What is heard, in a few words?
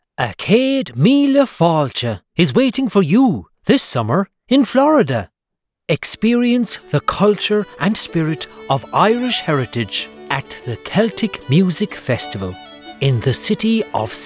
Celtic festival Commercial